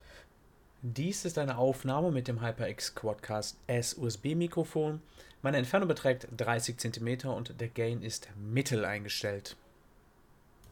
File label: HyperX Quadcast S Gain MED